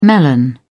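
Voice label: "melon", British English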